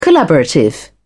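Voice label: "collaborative", British English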